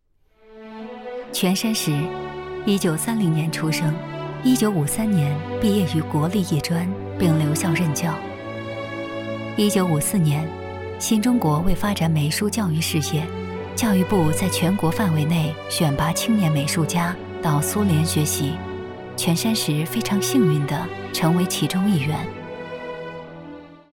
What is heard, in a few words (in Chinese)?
人物 专题 解说